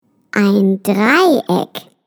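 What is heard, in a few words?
"triangle", German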